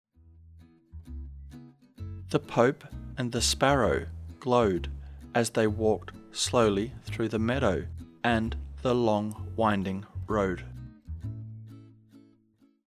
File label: slow, diphthong əʊ as in home; practice activity sentences